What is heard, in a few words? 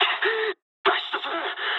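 bailout mood med, speech japanese; War Thunder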